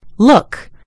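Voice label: "look", British English; gcompris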